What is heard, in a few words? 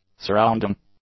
"surrounding", English; adjectives